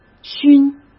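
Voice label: "熏", Mandarin Chinese; chinese-flashcards; hsk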